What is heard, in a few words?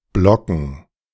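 "blocken", German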